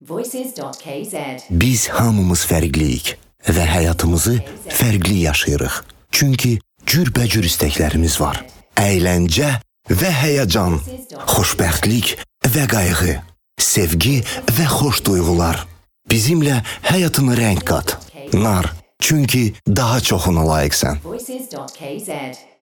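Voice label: Voice Man Azerbaijani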